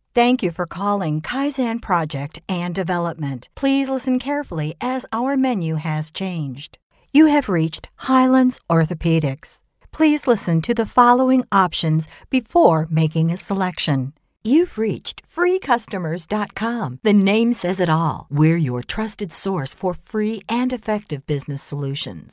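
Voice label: English american female; dictor; dictor-sounds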